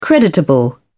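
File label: "creditable", British English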